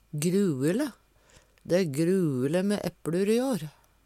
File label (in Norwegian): gruele, Numedalsmål